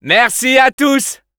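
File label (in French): Scout good job, French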